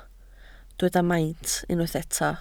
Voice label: "maint", Welsh